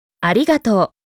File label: Japanese Thank you; Greetings